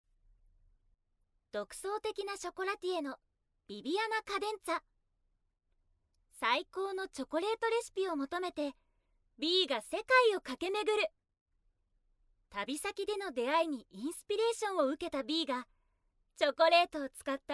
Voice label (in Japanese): ナレーション